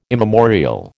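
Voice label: "immemorial", American English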